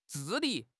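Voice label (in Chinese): "治理", Chinese; HSK6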